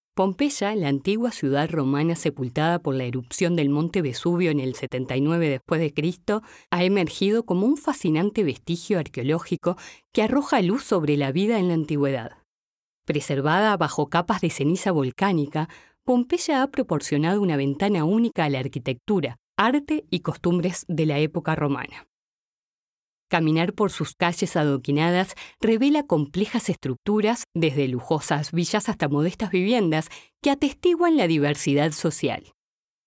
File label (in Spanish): no ficcion audiolibro